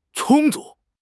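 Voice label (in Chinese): "充足", Chinese; HSK6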